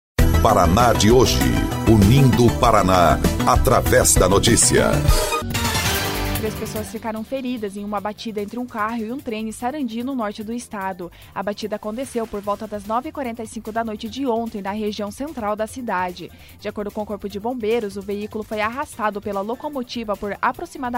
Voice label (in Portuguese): BOLETIM; Batida entre carro e trem deixa três pessoas feridas